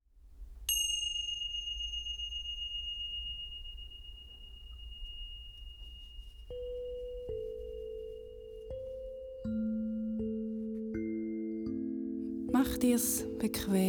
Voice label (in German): Meditation